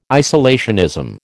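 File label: "isolationism", American English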